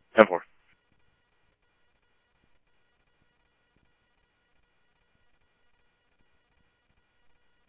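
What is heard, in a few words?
police department, scanner audio trunk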